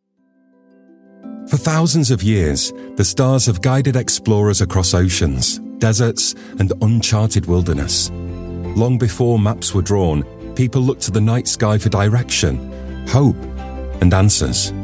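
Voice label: narration, Gravitas Warm Trustworthy Voice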